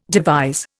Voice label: "devise", Indian